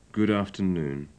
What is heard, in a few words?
"good afternoon", English